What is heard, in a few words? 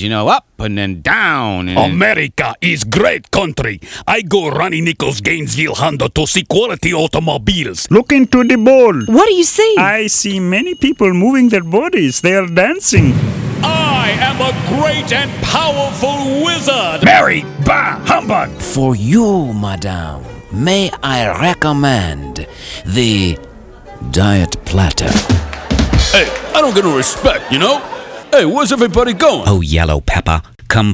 characters, character voices